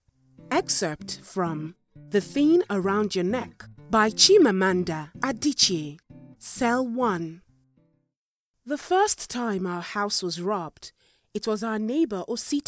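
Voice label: African English, Audiobook; GoLocalise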